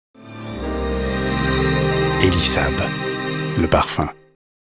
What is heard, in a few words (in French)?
TV Chic et Soft; ELIE SAAB